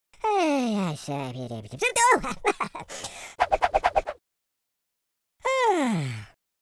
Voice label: idle, speech, Patronising